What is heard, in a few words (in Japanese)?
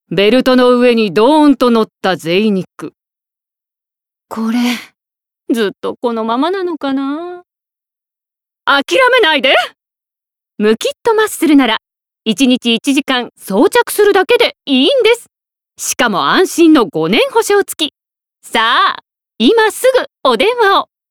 ナレーション